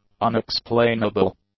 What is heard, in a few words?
"unexplainable", english; adjectives